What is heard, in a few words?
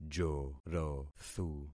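"jorothu", concatenated syllables; Affixing experiment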